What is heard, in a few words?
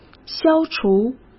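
"消除", Mandarin Chinese; hsk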